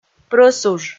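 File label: "прӧсуж", Komi, female; kpv audio dict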